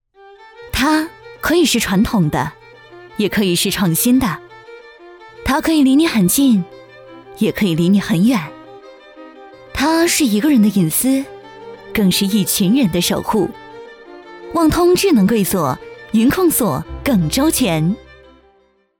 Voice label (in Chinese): 女 广告 高端 烟机